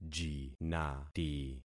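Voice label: "jinati", concatenated syllables; Affixing experiment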